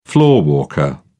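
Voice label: "floorwalker", British English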